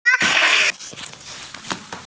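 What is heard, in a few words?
"ce suo", Mandarin Chinese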